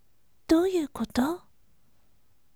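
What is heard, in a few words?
"douiukoto", gentle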